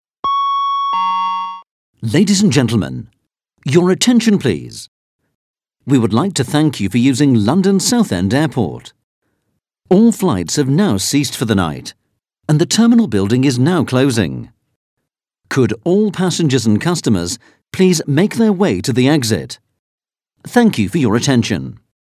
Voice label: Airport announcement